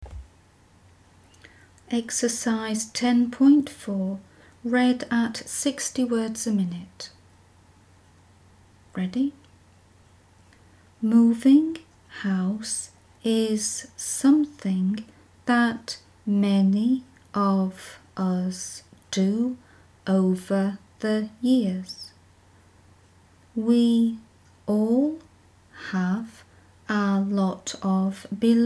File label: 60wpm; Teeline